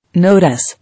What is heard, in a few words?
"Notice", English